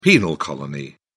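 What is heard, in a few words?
"penal colony", English, British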